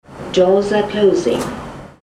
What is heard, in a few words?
airport shuttle computervoice english